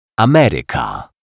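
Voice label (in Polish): "Ameryka", Polish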